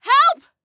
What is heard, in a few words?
Team Help, Female; Female 02